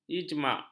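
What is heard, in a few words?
"ijmak", Malay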